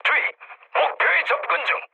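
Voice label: Korean near waypoint mood medium; speech; War Thunder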